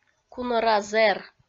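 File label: "кунӧра зэр", Komi, female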